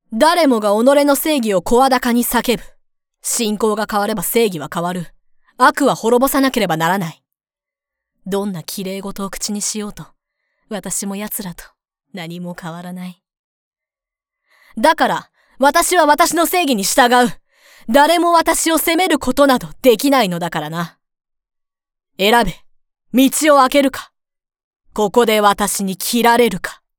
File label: Voice Female